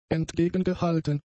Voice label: "entgegengehalten", German; adjectives

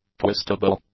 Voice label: "twistable", English; adjectives

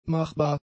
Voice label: "machbar", German; adjectives

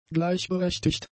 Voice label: "gleichberechtigt", German; adjectives

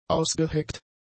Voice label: "ausgeheckt", German; adjectives

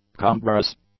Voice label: "cumbrous", English; adjectives

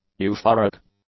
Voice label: "euphoric", English; adjectives

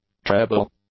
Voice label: "treble", English; nouns

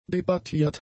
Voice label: "debattiert", German; adjectives

